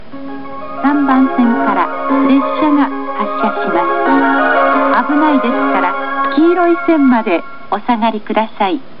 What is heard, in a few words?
Announce Matsumoto Departure